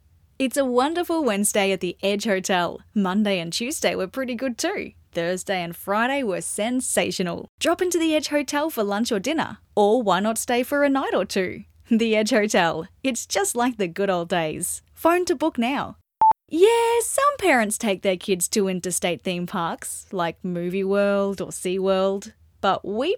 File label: conversational